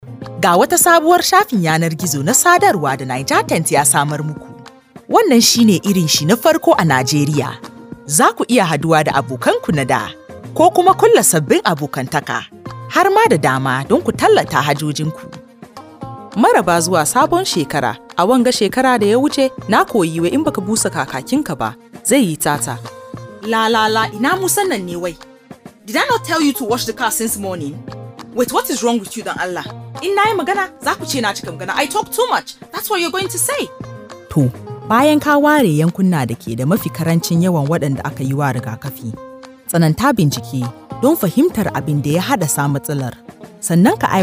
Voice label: hausa, hausa voices